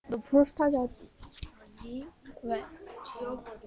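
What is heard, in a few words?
"low", spoken word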